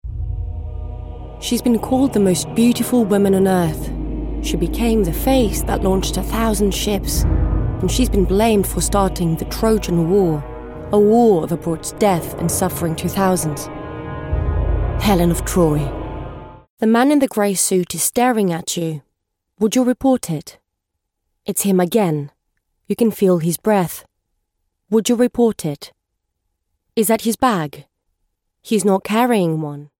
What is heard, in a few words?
English